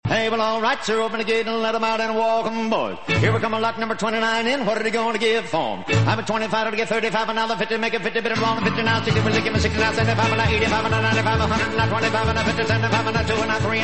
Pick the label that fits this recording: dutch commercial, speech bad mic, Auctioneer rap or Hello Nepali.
Auctioneer rap